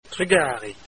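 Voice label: "thanks", Breton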